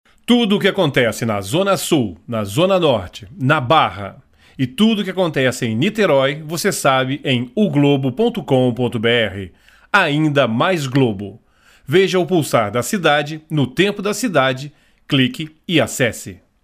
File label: locutor brasil brazilian voiceover; locutor de Brasil